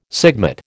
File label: "sigmate", American